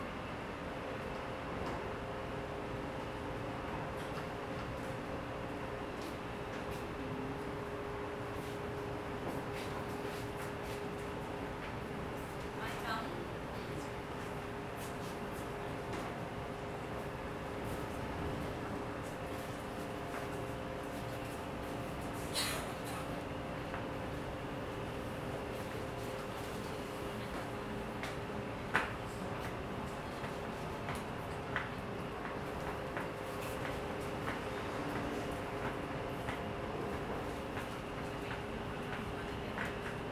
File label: Newspaper Spanish